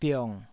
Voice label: "biong3", taiwanese syllables